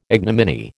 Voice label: "ignominy", American